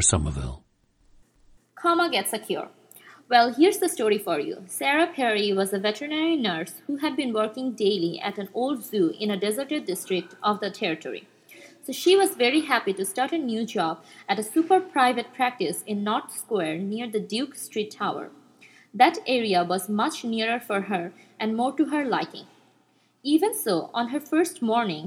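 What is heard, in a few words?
bangladesh, International Dialects of English Archive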